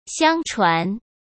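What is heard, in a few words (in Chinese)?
"相传", Mandarin; chinese-flashcards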